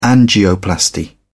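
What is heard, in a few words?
"angioplasty", British English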